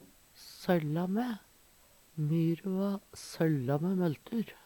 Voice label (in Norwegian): solla mae, Numedalsmål; Uttrykk